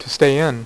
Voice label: "to stay in", dealer's speech; PowerPOKER Demo